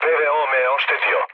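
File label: Serbian damaged aa mood low, speech; War Thunder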